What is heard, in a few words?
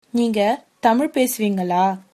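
do you speak, female, tamil; நீங்க தமிழ் பேசுவீங்களா?; neenga Thamizh pesuveengala?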